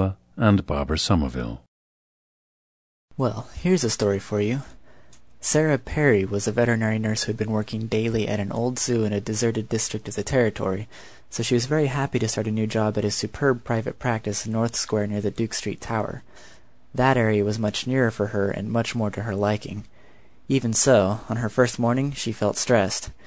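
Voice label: nevada, International Dialects of English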